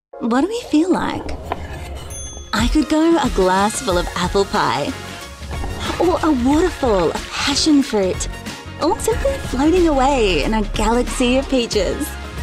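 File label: English Australian, female, commercial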